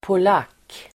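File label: "polack", Swedish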